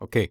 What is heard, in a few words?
"Ok", Male Voice